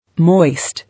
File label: "Moist", English